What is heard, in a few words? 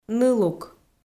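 "нылук", Komi, female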